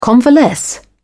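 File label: "convalesce", British English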